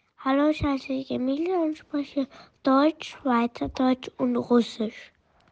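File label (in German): Russisch Vorstellung, Russian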